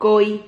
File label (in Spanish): "cohi", Silabas